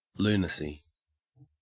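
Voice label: "lunacy", Australian English; pronunciations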